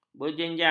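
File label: "berjenjang", Malay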